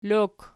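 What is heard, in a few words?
"lok" nominative, Archi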